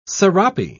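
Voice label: "serape", British English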